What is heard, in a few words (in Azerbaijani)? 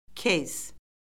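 "keys", Azerbaijani; Orfoqrafiya Lüğəti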